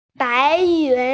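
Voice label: "bai2yun2", Mandarin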